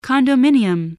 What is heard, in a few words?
"condominium", english